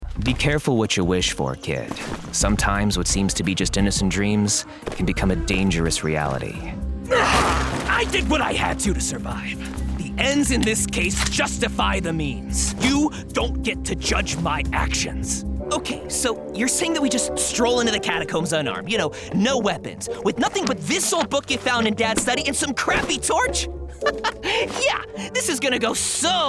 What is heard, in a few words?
Characters, Male voices